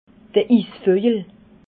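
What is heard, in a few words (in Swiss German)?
"isvogel", Alsatian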